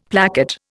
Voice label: "placket", Indian; Dictionary